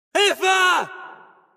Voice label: help, callout; prussian